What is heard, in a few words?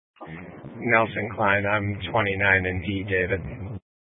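Sheriff Dispatch, Scanner audio recording archive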